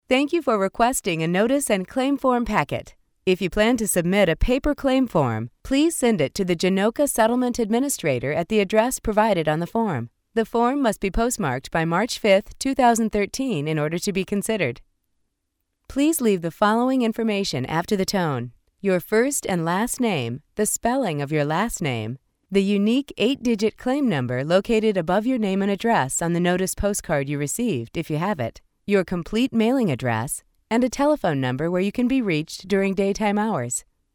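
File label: voice over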